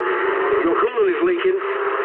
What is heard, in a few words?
eng damaged water mood low, speech eng; War Thunder